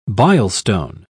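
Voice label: "bilestone", British English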